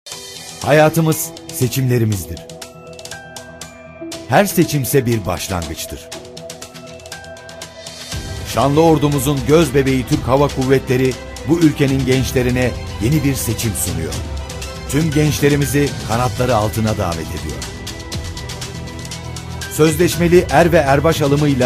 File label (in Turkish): Reklam Filmi Seslendirme